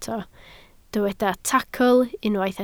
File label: "tacl", Welsh